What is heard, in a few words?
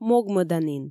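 "могмӧданін", Komi, female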